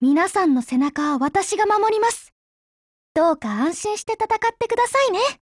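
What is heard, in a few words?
Japanese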